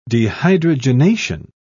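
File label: "dehydrogenation", British English, dictionary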